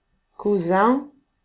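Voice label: "cousin", French; famille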